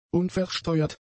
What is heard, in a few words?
"unversteuert", German; adjectives